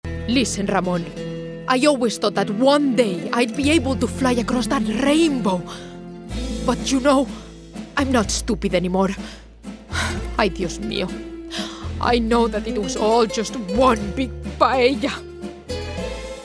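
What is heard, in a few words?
Spanish, female, English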